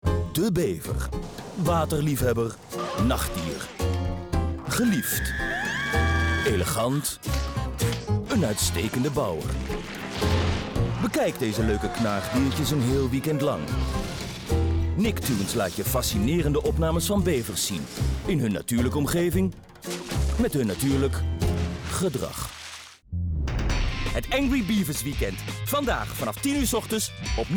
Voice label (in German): nl tv, sprecher niederlaendisch